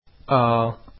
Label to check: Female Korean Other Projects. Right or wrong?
Wrong